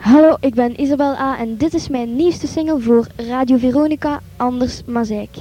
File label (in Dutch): Aankondiging plaat door artiest